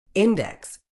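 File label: "index", British English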